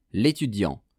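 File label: "letudiant", French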